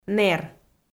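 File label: "нэр", Komi, female; audio dict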